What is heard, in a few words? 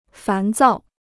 "烦躁", Chinese